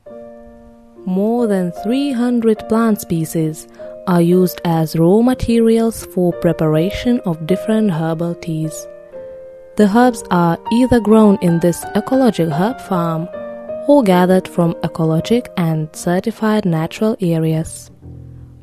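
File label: Lithuanian, English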